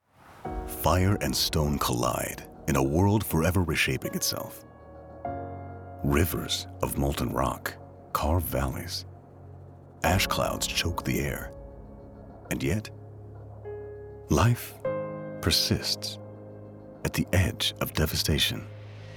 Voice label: Volcano Documentary, male voiceover deep voice rich baritone